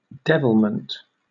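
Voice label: "devilment", British English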